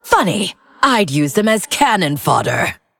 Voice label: Female Undead